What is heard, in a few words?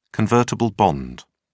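"convertible bond", British English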